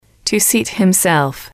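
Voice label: "to seat himself", English, female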